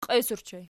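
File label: "qies" ergative plural, Archi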